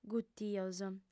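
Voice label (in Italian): "gutios", lingua sarda, female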